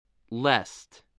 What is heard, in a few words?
"lest", spelling